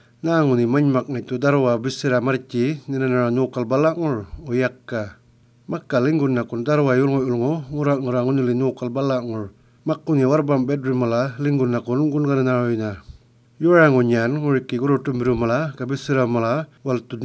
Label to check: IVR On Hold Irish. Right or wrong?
Wrong